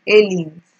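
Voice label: "hailings", Nigerian Pidgin, female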